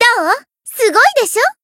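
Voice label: Voice Japanese; alma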